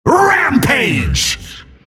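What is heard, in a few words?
announcer kill rampage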